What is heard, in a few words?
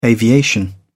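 "aviation", British English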